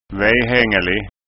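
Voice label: "vehangelig", Scanian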